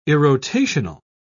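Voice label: "irrotational", British English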